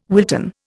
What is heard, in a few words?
"Wilton", Indian